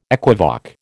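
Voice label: "equivoque", American English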